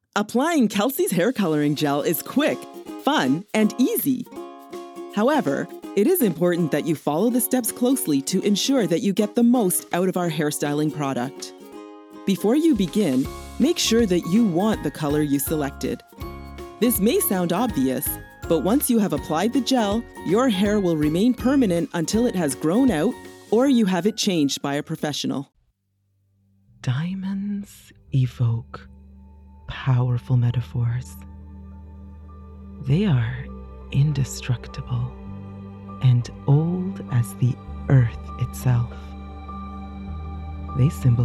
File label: Explainer Demo